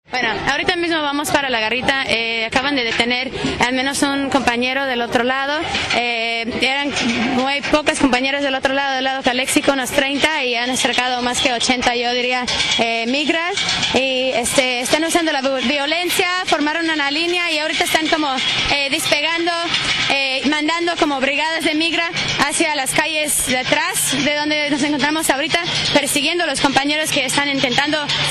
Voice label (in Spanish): narracion desde la protesta